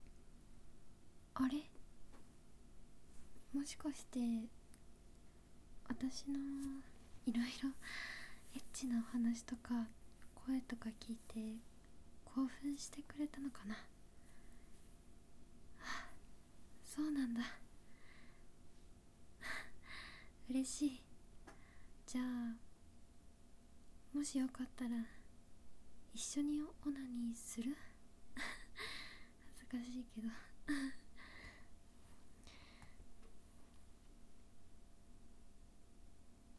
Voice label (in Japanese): 淫語オナニー カウント ステレオ